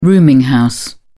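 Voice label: "rooming house", English, British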